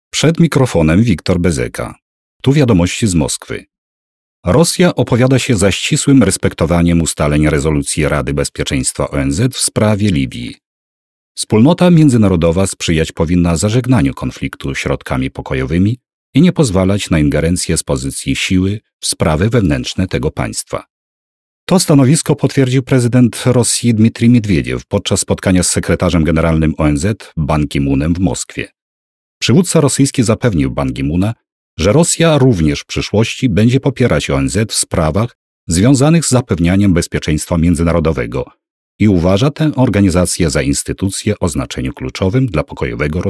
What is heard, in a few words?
polish male